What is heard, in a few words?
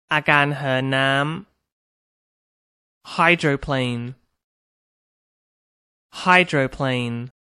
"hydroplane", English; Science New Vocabulary